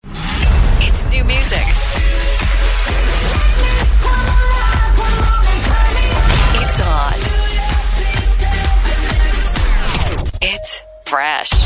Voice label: radio imaging